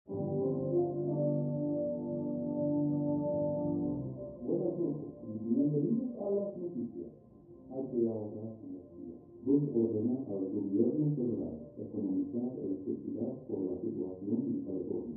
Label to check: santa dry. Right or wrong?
Wrong